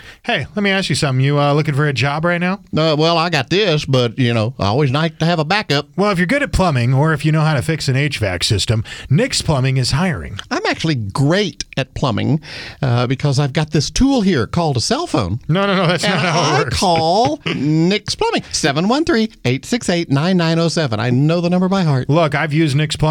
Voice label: RADIO AD; nicks plumbing radio ads